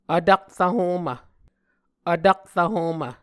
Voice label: "vtakrv homv", Muscogee

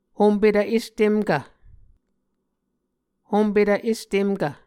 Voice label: "hompetv estemkv", Muscogee

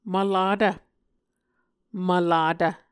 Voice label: "mvlatv", Muscogee

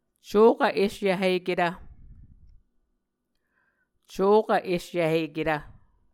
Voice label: "cokv esyvhiketv", Muscogee

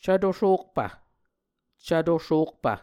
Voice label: "cvto sokpv", Muscogee

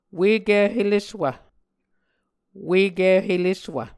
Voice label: "Ueki Heleswv", Muscogee

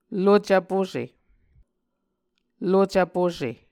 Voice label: "lucv puse", Muscogee

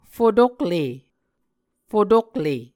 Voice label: "fotokle", Muscogee

